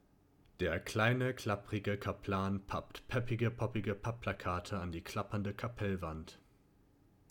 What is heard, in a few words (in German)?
Stereo